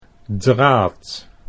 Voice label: "draad", Dutch